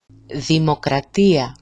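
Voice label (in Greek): "dimokratia", Greek; δημoκρατία; [δimokra'tia]; democracy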